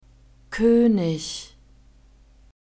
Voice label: "koenig", german; masculine